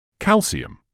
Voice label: "calcium", medical english uk; ˈkæl.siː.əm; KAL-see-uhm